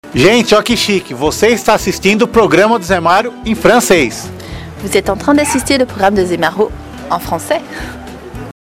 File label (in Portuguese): vinheta frances